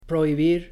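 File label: "prohibir", Spanish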